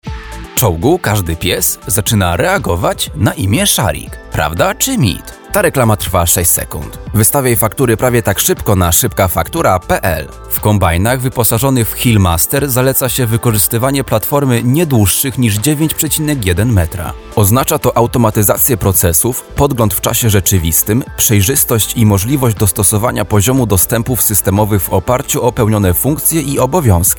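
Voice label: Polish, male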